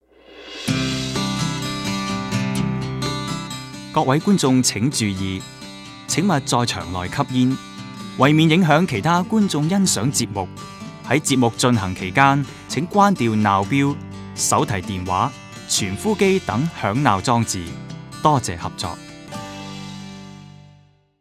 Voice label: announcement